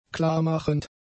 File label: "klarmachend", German; adjectives